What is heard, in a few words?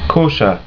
"Koscher", German